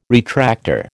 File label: "retractor", American